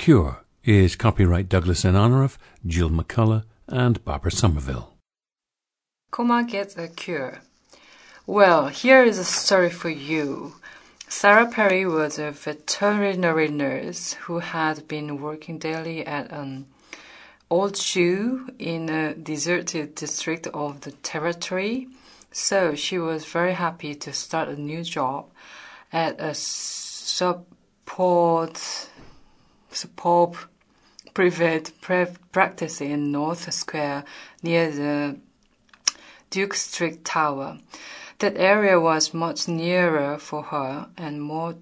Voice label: south korea

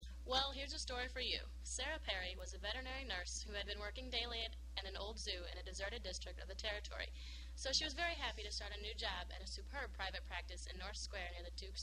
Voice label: missouri, dialects

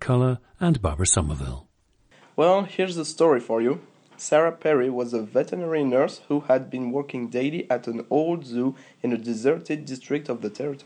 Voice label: france, dialects

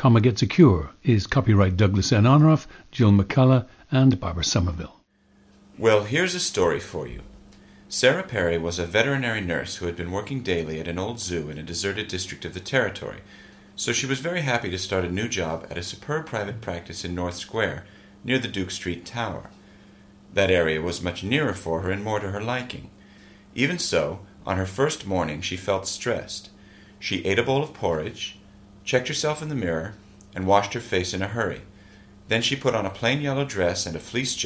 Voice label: General American

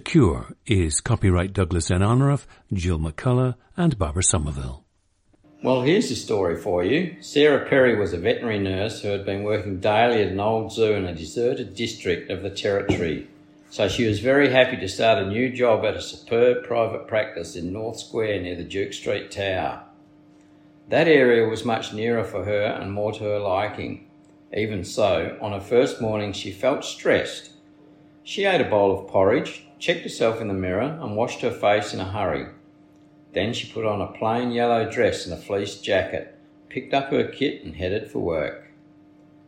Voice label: australia

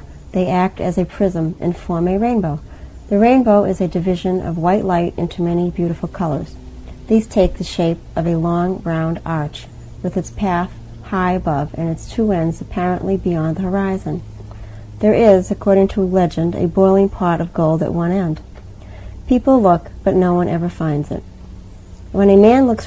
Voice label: massachusetts, dialects

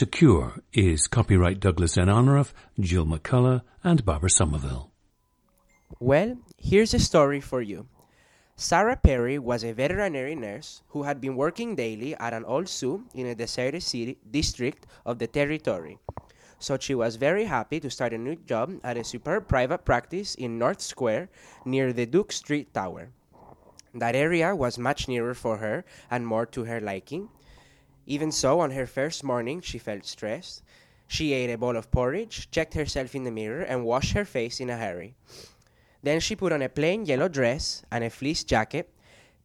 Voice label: venezuela

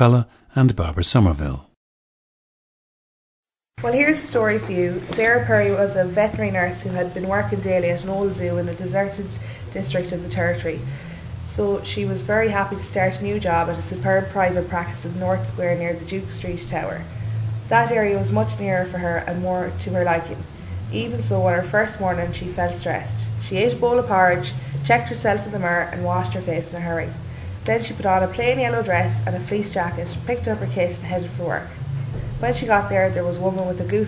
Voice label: ireland, dialects

